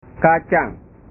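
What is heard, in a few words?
"kacang", Indonesian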